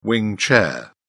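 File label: "wing chair", British English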